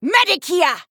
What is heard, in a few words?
Female Troll Attack